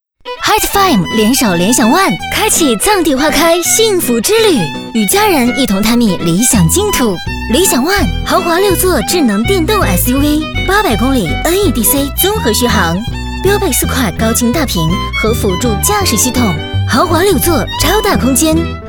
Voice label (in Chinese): 女 广告 汽车; 理想ONE